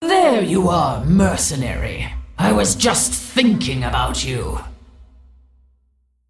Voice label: Female Dragon Bark; Onyxia